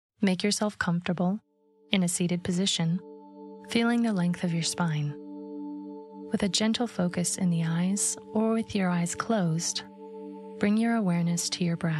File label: Meditation